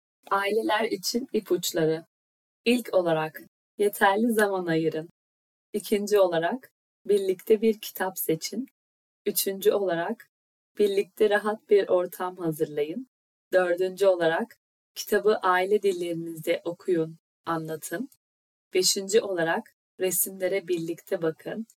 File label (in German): Vorlesetipps Tuerkisch